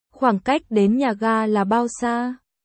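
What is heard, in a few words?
Vietnamese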